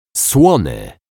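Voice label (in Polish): "slony", Polish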